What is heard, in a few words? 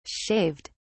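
"shaved", British English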